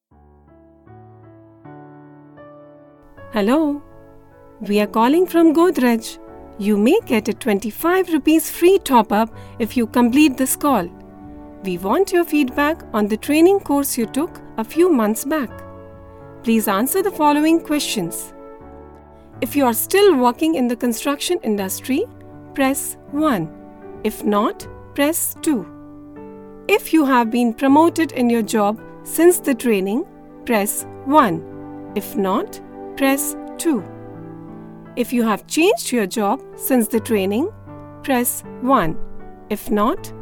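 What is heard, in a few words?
IVR english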